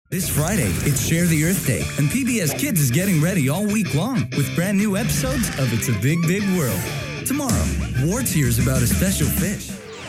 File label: Male, promos